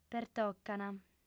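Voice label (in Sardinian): "pertocant", female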